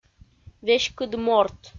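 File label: веськыд морт, Komi, female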